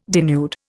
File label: "denude", Indian